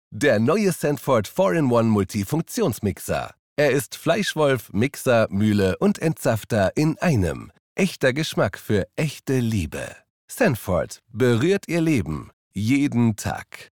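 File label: German, male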